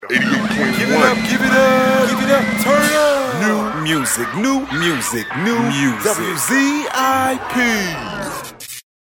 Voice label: sweeper new music turn up, voice